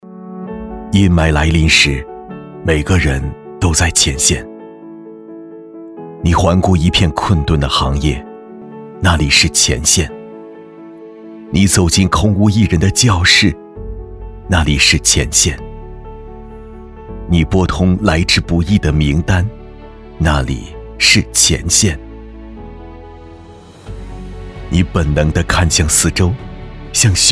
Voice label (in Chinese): 男-内心独白